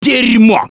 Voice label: reaction negative, russian; Gambler